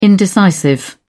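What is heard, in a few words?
"indecisive", British English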